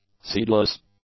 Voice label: "seedless", English; adjectives